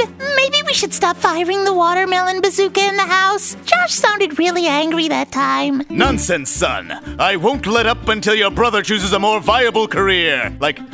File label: Character Demo